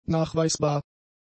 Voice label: "nachweisbar", German; adjectives